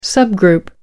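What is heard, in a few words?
"subgroup", British English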